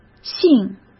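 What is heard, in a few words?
"性", Mandarin Chinese; hsk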